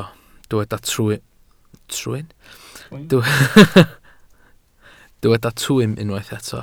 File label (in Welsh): "twym", Welsh